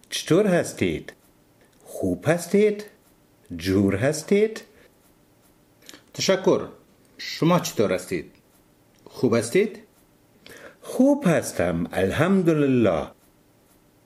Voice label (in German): Dialog, Dari-Persisch